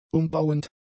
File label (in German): "umbauend", German; adjectives